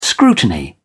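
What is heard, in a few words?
"scrutiny", English, British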